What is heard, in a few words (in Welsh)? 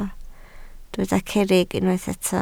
"cerrig", Welsh